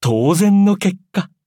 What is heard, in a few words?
victory, ja; cookie run